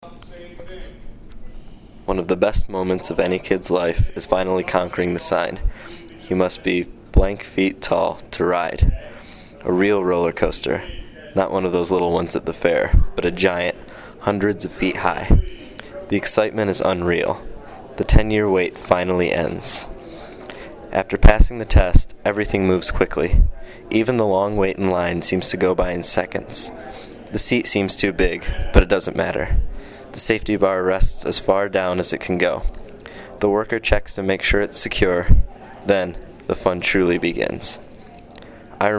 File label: personal narrative audio